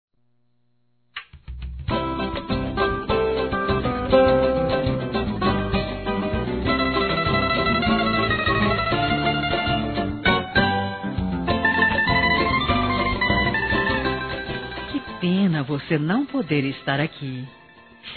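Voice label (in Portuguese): Natal para parente feminino, Telemensagens; Natal e Ano novo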